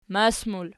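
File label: "mas" plural, Archi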